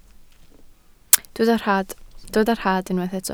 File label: "rhad", Welsh